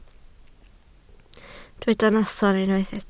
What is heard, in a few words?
"noson", Welsh